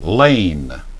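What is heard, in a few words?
"lane", English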